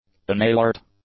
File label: "unalert", English; adjectives